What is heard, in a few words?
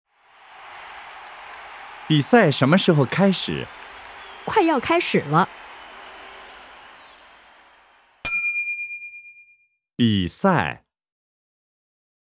Going to a basketball game, Chinese